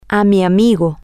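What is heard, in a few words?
Spanish, female; friend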